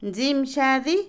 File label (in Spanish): Otomí, mujer; almuerzo; Comida; INALI